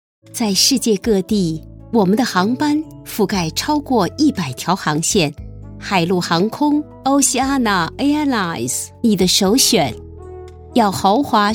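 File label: Mandarin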